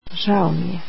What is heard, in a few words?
Sorry, croatian